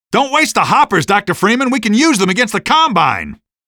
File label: mine help, male; Half-Life 2; streetwar; barricade